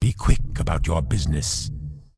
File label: Undead Male Warrior Vendor; NPC